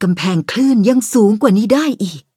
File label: levelup, th; Cookie Run